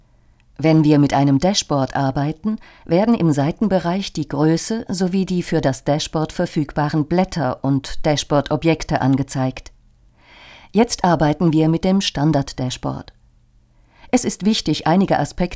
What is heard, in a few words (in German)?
E-learning, Sprecherin